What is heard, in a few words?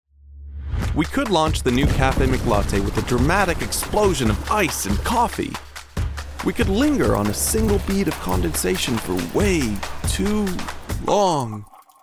Commercial; 20-30s. US. Young, friendly and warm. Speaks fluent Arabic. Home Studio